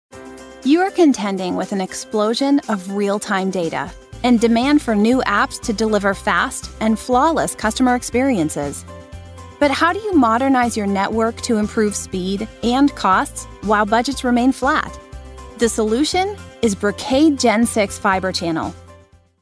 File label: F Explainer Video